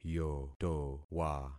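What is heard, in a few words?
"yotowa", concatenated syllables; Affixing experiment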